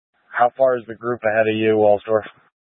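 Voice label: Incident Tac 3, Scanner audio recording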